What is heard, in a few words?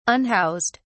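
"unhoused", British English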